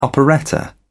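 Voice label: "operetta", British English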